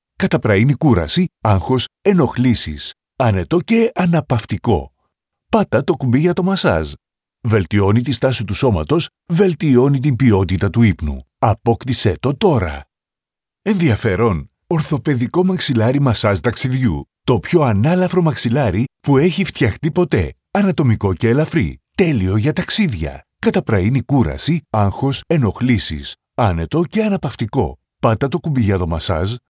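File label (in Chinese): 希腊语男_外语_小语种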